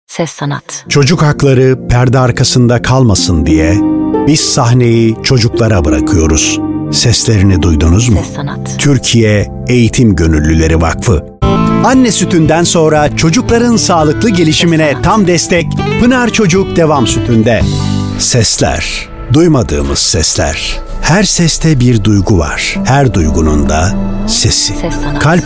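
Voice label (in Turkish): TR, Seslendirme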